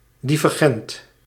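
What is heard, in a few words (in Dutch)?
"divergent", Dutch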